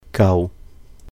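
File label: "gau", Cantonese; enough, sufficient; 夠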